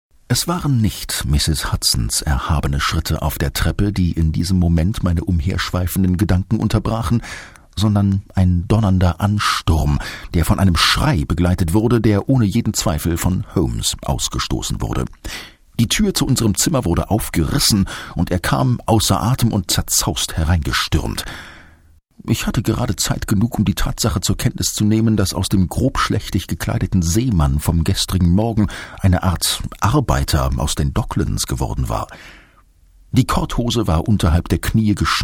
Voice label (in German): hoerbuch, sprecher